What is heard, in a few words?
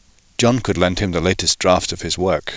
English Male, Target